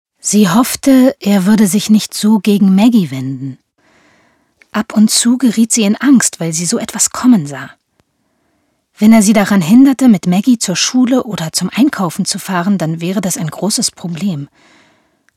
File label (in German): Hoerbuch soft